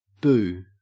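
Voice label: "boo", Australian English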